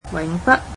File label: "never", Cantonese